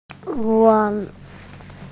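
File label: "weng1", Mandarin Chinese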